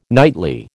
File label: "nightly", American